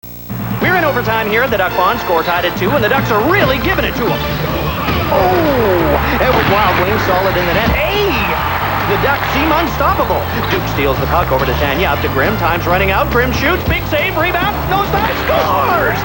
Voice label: Commercial Announcement; Mighty Ducks; A commercial from Canada's Family Channel